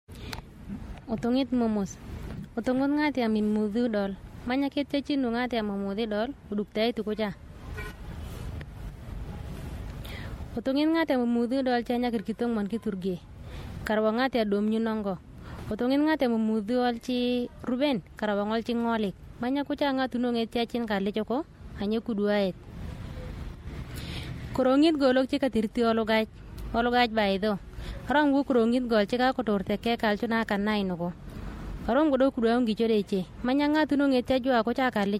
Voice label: Radio Jingle, Murle; ROA